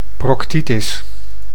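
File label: "proctitis", Dutch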